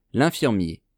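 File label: "linfirmier", French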